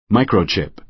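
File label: "microchip", American English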